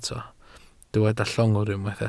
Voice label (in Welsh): "llongwr", Welsh